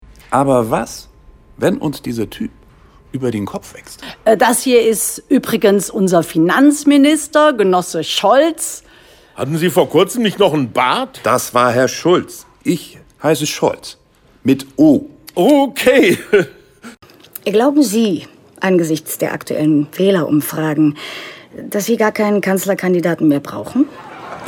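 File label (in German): Hörspielsatire; Der Putsch 2, Rolle: Olaf Scholz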